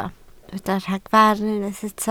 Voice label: "ragfarn", Welsh

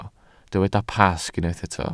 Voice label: "Pasg", Welsh

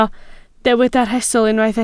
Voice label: "rhestl", Welsh

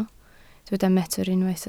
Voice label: "metr", Welsh

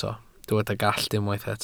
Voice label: "gallt", welsh

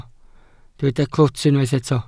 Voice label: "clwt", Welsh

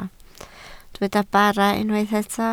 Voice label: "bara", Welsh

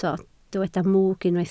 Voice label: "mwg", Welsh

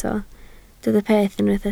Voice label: "peth", Welsh

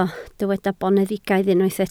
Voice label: "boneddigaidd", Welsh

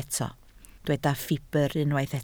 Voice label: "ffibr", Welsh

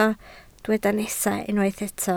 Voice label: "nesaf", Welsh

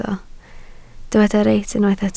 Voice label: "reit", Welsh

